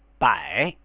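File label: "bai", Chinese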